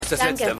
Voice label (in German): Frau Danke, Stimmproben; Seinfeld